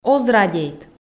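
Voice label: "оз радейт", Komi, female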